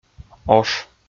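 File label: "ош", Komi, male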